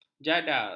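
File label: "jadar", Malay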